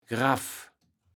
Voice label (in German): "Graff", Platt